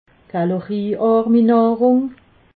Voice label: "kaloriarmi", alsace

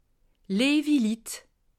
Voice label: "litt", alsace

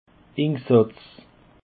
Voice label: "insatz", alsace

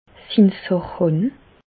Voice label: "sach han", Bas Rhin; medecine

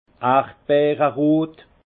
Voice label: "erdbeerrot", alsace; fraise; vin

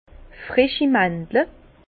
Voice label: "freschi", Bas Rhin; vin; amandes fraiches

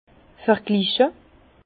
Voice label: "vergliche", Bas Rhin; vin; comparer

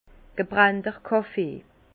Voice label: "gebrennter kaffee", Bas Rhin; vin; café grillé

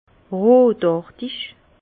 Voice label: "rotartig", Bas Rhin; vin; rougeâtre

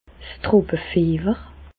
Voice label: "tropefiewer", Bas Rhin; medecine; paludisme

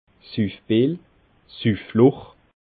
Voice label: "suffbil", Bas Rhin; poivrot

